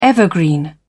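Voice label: "evergreen", British English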